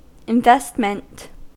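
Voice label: "investment", American English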